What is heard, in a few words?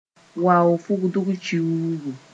"wao-fugdg kiuugu", Mooré